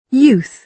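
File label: "youth", British English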